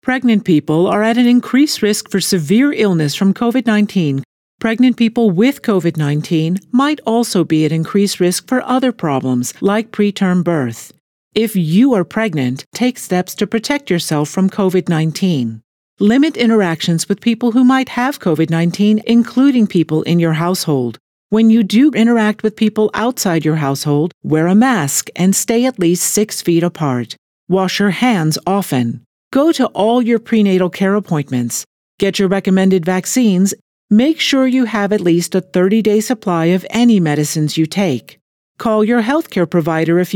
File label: pregnancy english; CDC Covid; coronavirus 2019 ncov